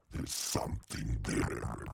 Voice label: demonic techno voice "there's something there"